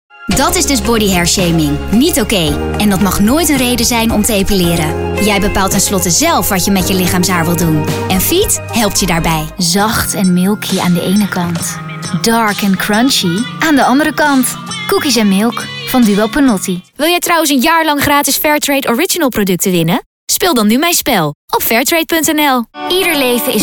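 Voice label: Dutch voice reel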